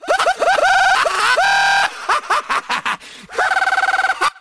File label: rage medic; missingteam